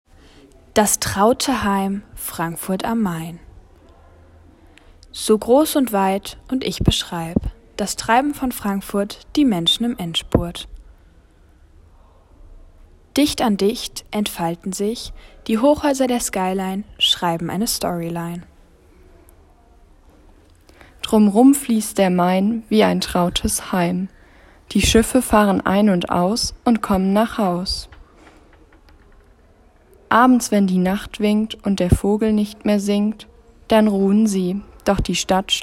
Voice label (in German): Audio Gedicht